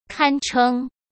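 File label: "堪称", Mandarin Chinese; chinese-flashcards; hsk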